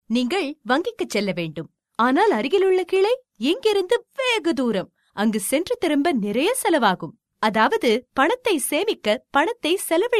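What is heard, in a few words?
Tamil, female, corporate; voice-over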